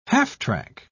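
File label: "half-track", British English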